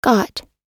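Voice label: "got", American English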